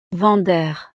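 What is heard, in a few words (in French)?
"vendeur", French; vendedor; profesiones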